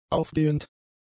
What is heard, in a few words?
"aufgehend", German; adjectives